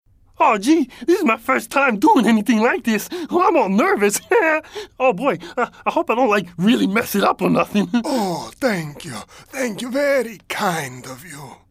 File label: male american english cartoon voices; ideasonora